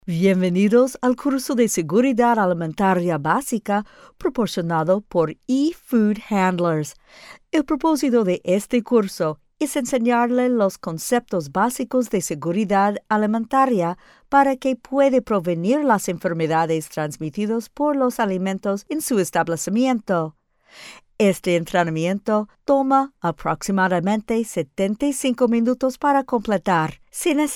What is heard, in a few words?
E-Learning Food-Spanish